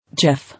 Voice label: "Jiff", English

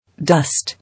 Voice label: "Dust" English

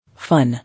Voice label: "Fun", English

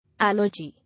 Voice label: "Allergy", English

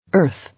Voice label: "Earth", English

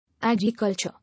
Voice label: "Agriculture", English

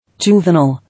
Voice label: "Juvenile", English

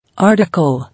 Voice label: "Article", English

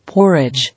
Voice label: "Porridge", English

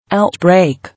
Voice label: "Outbreak", English

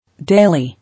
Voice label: "Daily", English

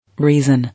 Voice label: "Reason", English

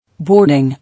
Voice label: "Boarding", English

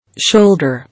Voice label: "Shoulder", English

